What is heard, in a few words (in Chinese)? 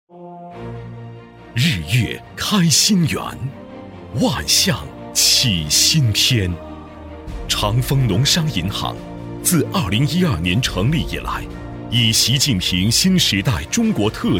男 企业党建 气势厚重; 高端磁性; 大气浑厚; 农商银行筑梦十年